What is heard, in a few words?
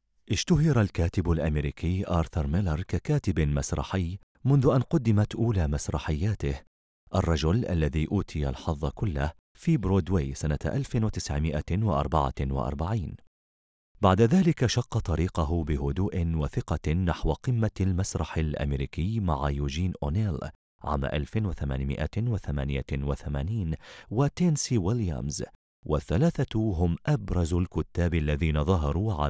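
Arabic, male, narration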